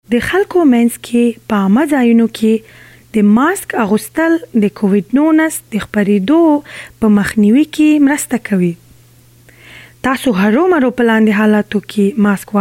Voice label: PSA Pashto; Key Times to Wear a Mask; coronavirus

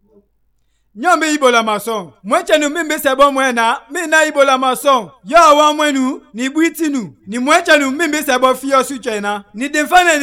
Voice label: Kono, radio spots; ebola

